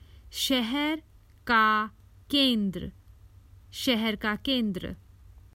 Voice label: The city town centre, Common Hindi Phrases for travel